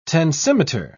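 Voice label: "tensimeter", British English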